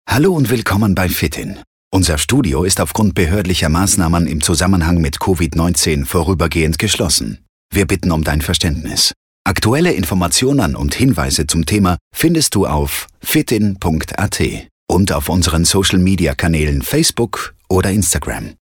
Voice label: Telefonansage; Fitinn

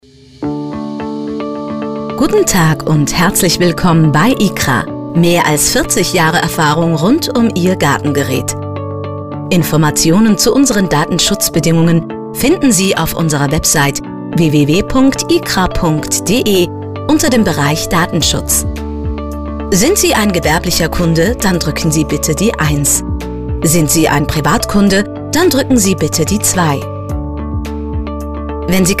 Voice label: Telefon; IKRA

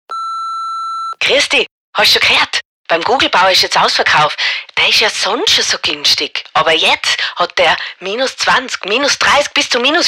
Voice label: Spot Dialekt Tirolerisch

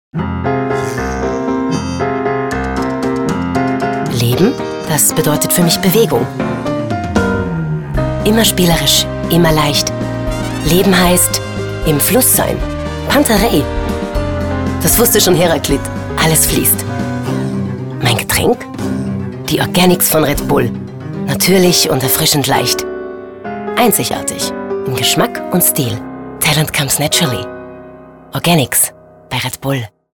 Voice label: Werbung jung sportlich freundlich; RedBull

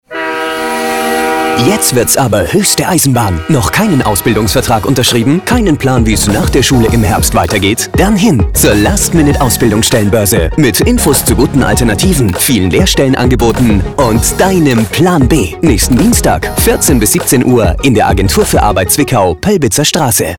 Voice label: WERBUNG; Ausbildungsbörse

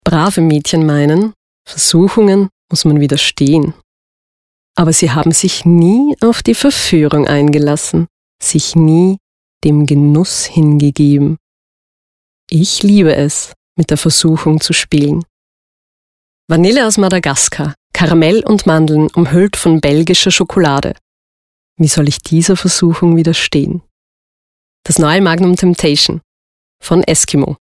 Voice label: Werbung

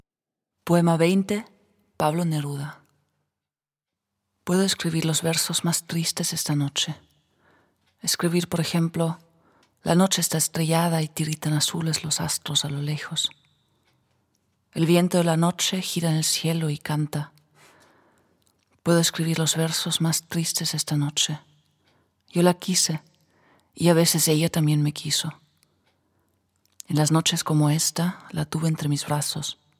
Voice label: Spanisch

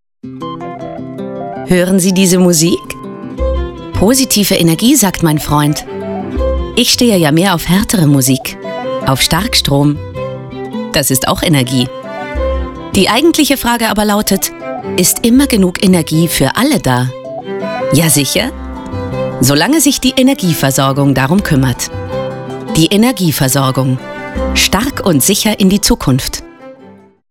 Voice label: Spot Energieversorgung

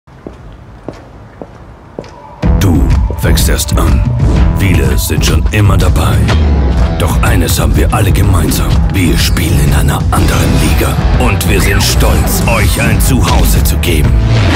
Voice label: TV Werbung; Admiral

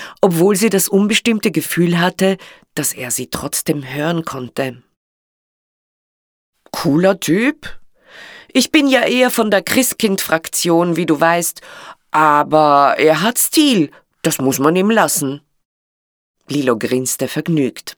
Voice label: Weihnachtsmann Hoerbuch gekuerzt

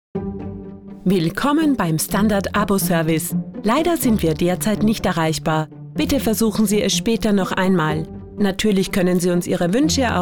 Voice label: Tonband

